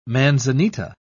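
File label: "manzanita", English, British English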